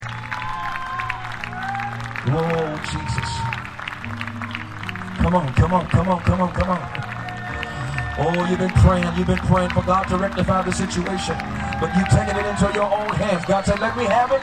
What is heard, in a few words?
revival sermon